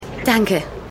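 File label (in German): "Mutter" German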